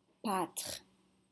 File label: "pâtre", French